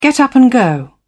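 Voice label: "get up and go", British English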